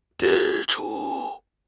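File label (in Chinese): "支出", Chinese; HSK6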